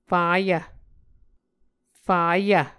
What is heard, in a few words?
"fayv", muscogee